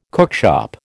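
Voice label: "cookshop", American English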